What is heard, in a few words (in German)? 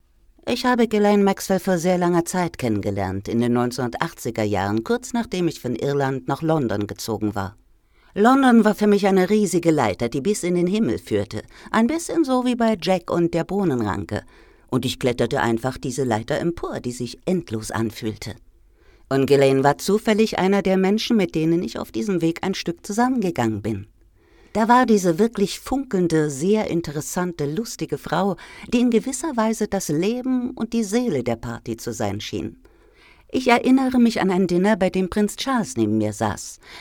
Doku